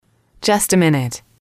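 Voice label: "just a minute", English, female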